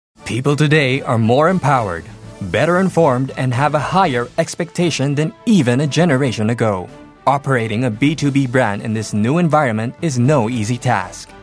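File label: American, narration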